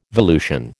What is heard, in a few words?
"volution", American